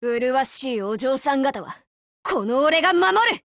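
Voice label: Japanese; HK512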